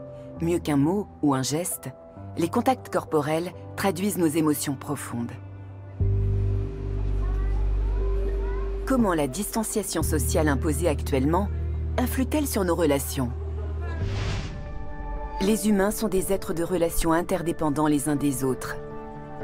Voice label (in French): voix off les caresses le toucher; comedienne